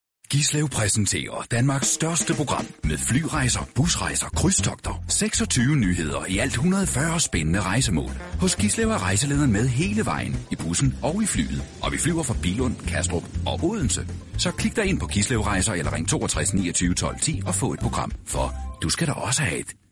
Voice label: Danish M Radio Commercial; voice over